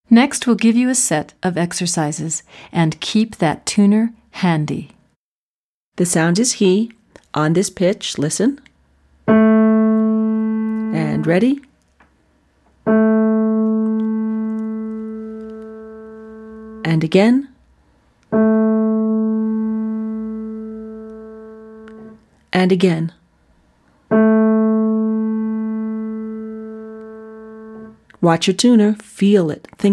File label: Voice Fem